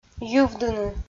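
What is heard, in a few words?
"йывдыны" Komi, female; audio dict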